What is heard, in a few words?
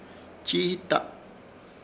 "Chiita", Ishir Chamacoco; servir debidas